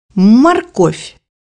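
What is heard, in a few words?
"carrot", Russian; bukvar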